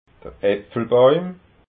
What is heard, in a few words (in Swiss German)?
"apfelbauim", alsace